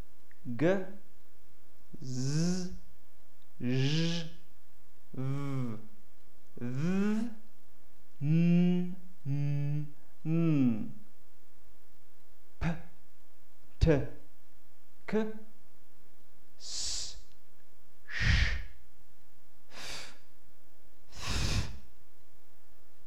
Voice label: voiced voiceless; ELiX